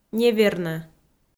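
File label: "невернӧ", Komi, female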